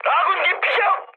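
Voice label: Korean aircraft destroyed mood high, speech; War Thunder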